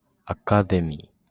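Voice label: "academy", Japanese, male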